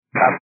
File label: 122 725MHz, scanner radio